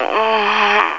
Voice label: Sensual Women; Desktop Studio 2000; People

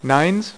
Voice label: "NINES", Dealer's Speech

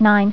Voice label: "0", Voice Female; TalkingKeys

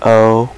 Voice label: "o" male, alphabet; Web Clip Empire 150,000

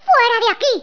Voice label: go away, Spanish, Speech

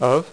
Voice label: "OF", Dealer's Speech; PowerPOKER Demo

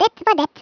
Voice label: oh dear, Norwegian; Speech; 11khz

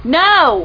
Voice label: pissed off no

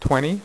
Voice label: "6", poker; iPoker 2000

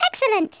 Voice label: "excellent", English speech

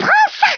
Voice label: dragon punch, French

